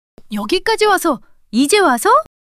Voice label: surprise, monologue